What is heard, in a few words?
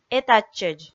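"этатчӧдз", Komi, female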